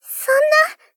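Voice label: Polite, Shock, "Sonna", Japanese female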